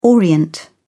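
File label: "orient", British English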